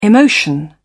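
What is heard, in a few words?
"emotion", British English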